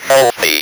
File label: "HEALTHY", Speak & Spell